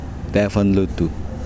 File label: "dawn", Kosraean